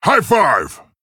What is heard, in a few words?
Male Tauren Attack